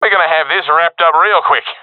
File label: round winning, professional epic